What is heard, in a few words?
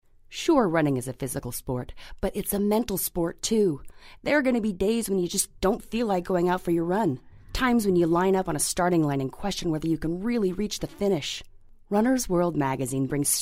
Voiceover; Runners Mag